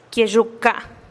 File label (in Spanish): "kie'xuka" mi hombro, teotitlan